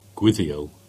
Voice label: Cornish; Withiel; place names